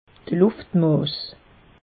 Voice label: "luft mass", alsace; meteo

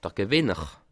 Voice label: "gewinner", alsace

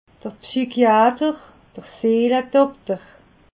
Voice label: "psychiater", alsace

